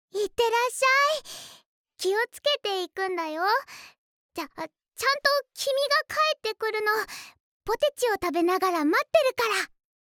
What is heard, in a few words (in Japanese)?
シチュエーションボイス; セフィ